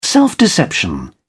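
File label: "self deception", British English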